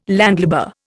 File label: "landlubber", Indian